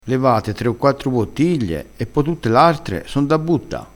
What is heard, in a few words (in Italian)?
"levato", crespinese